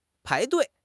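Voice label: "pai2 dui4", Chinese; HSK4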